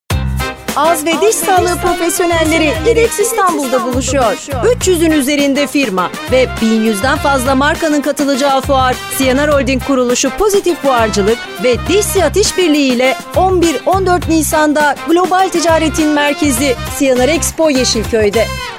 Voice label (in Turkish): reklam enerjik dinamik neseli coskulu; idex